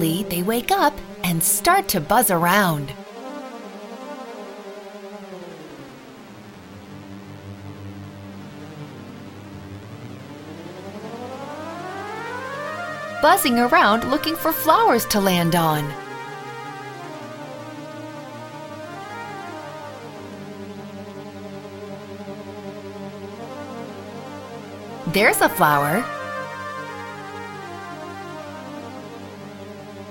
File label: The Bees Narrated